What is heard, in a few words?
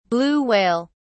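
"blue whale", British English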